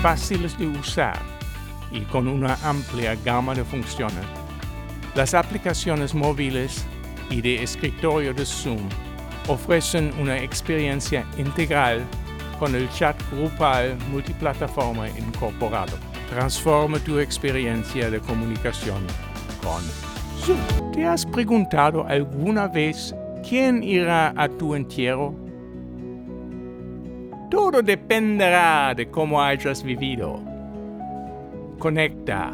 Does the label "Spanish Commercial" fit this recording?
Yes